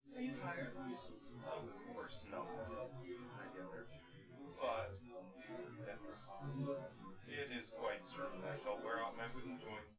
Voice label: male synth conv; SiSEC10; underdetermined